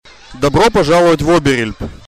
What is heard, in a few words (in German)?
russisch